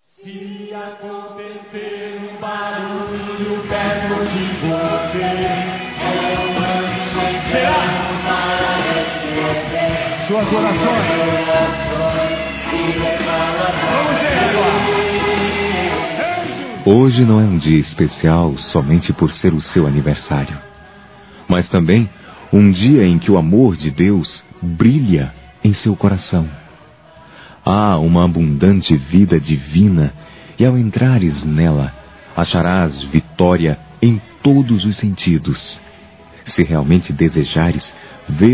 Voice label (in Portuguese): Neutro Católico Masculino; ANIV. Evangelico